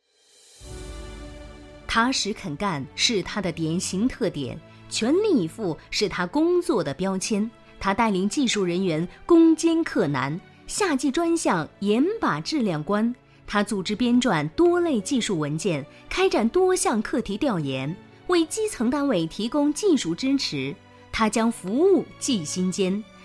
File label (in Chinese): 女9号专题; 企业颁奖